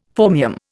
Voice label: "phormium", Indian; Dictionary